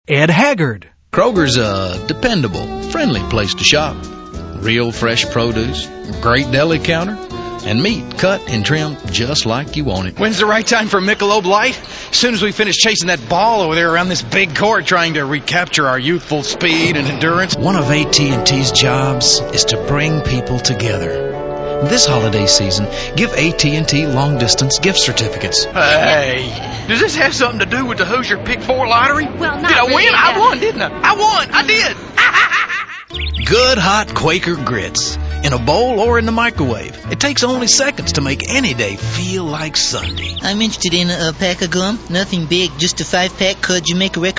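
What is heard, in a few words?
Male Talent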